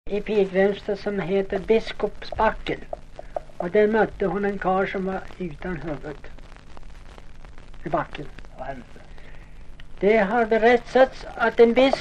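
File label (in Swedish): Näs Jämtland, svenska sagor dialekter; Folkminnen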